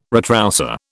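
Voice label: "retroussé", British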